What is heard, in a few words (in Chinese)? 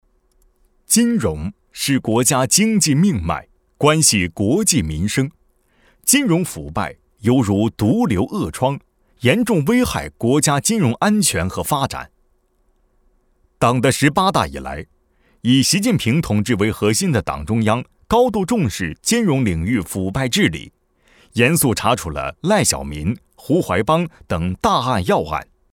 扬清风正气 促清廉金融(党政)